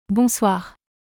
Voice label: French Good evening; Greetings